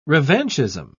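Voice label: "revanchism", British English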